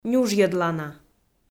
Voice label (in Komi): "нюжйӧдлана", Komi